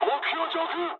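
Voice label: near waypoint mood high, speech japanese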